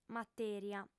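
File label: "matèria" Sardinian, female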